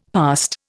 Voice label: "past", Indian; Dictionary